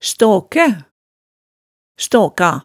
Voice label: "staakæ", hemsedalsmaalet

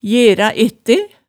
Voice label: "jera ette", hemsedalsmaalet

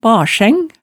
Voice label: "barseng", hemsedals maalet tale

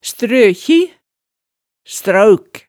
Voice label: "strøkji", hemsedalsmaalet

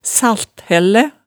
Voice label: "salthællæ", hemsedalsmaalet

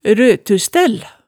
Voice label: "røtustell", hemsedalsmaalet, tale

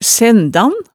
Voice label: "sændan", hemsedalsmaalet, tale

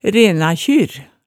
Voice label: "renakjyr", Hemsedal

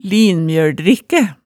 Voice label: "linmjøldrikkæ", hemsedalsmaalet, tale

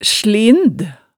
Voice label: "slind", hemsedalsmaalet, tale

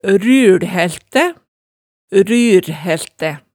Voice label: "ryrhæltæ", Hemsedal

